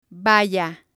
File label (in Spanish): "vaya", spanish